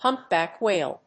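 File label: "humpback whale", English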